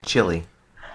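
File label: "Chile", English pronunciation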